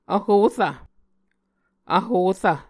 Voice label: "vhorv", Muscogee